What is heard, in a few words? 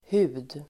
"hud", Swedish